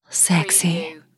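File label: Female Says Sexy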